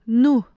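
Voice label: "nuh", Tajik, female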